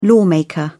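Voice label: "lawmaker", British English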